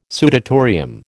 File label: "sudatorium", American